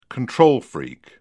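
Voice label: "control freak", British English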